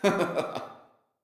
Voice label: Diner Voice; Levels Hub Diner